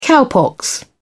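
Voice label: "cowpox", British English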